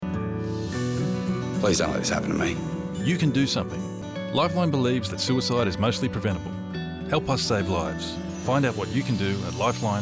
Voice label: Male; TVR; Lifeline

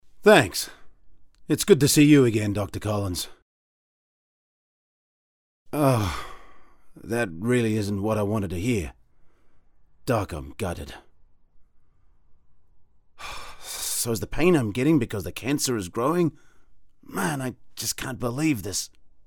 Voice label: e-learning simulation audition

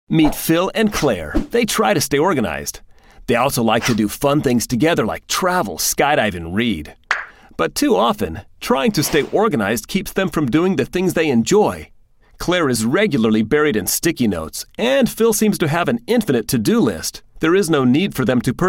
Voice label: Explainer

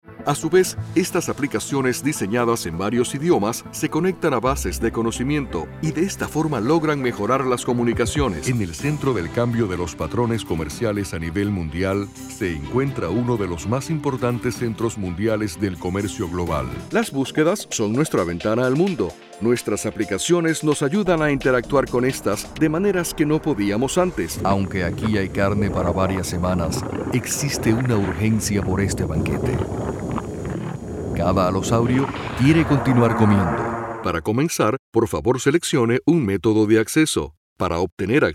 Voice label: Spanish Narration

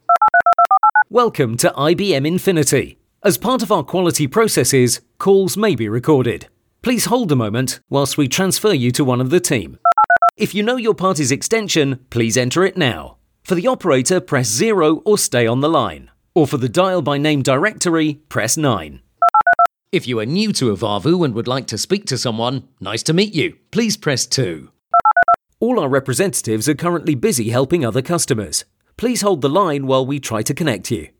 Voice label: IVR Telephone messages

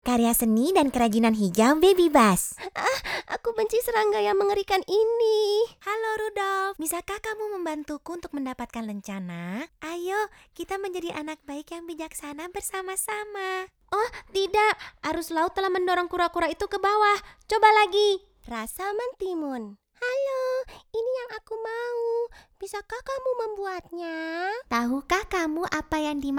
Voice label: Little Girl

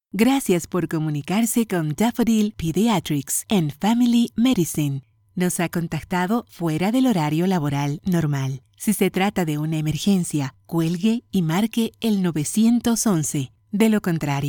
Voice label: answering machine

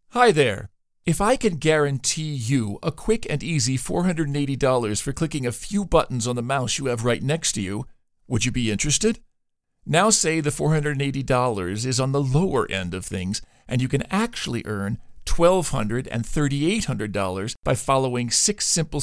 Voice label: Sales Pitch sample

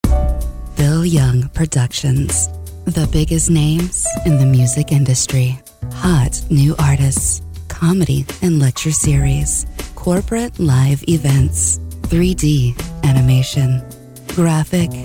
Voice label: Sexy Sensitive

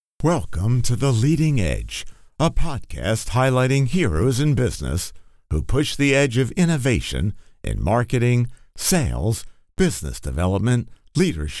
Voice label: Podcast; Leading Edge

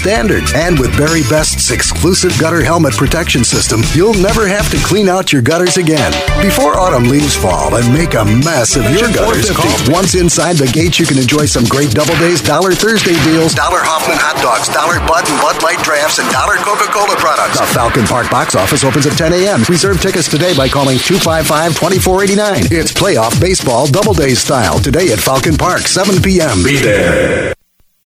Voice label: More aggressive

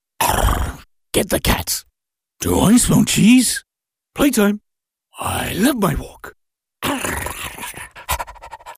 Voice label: cartoon dog

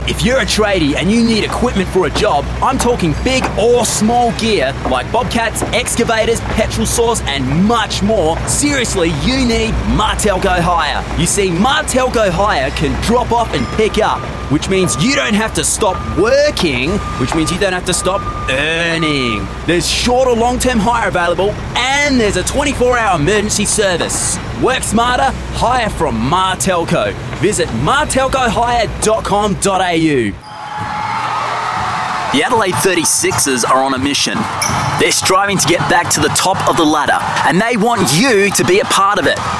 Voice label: Voiceover

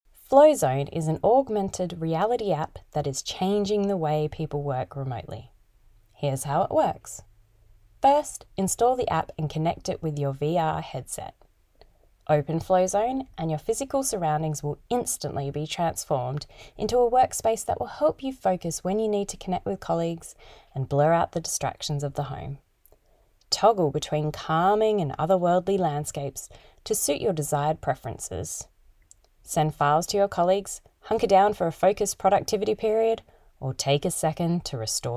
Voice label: Explainer video

